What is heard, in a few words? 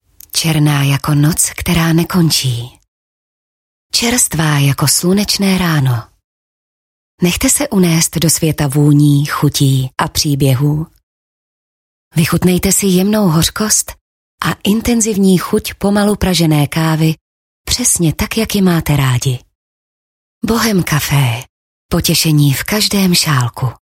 Czech